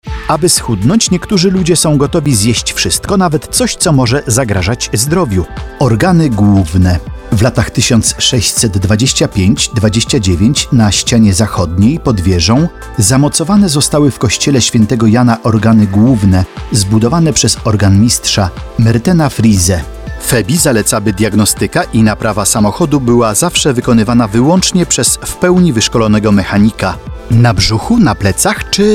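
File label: Polish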